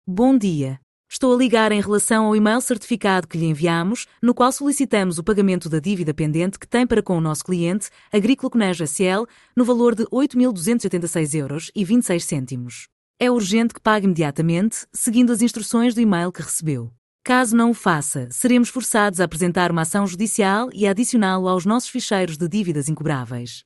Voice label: audio call